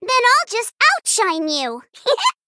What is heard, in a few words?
Female Gnome Mirror Start